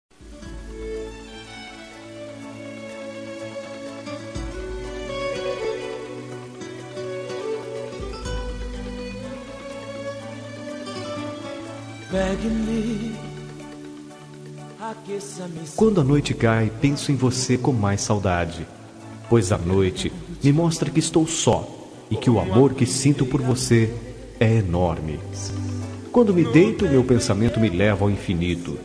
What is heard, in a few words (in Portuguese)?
Romântica Com Saudades